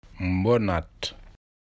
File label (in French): Wolof Tortue